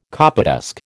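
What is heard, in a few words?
"copydesk", American English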